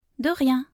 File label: "de rien" French; thank you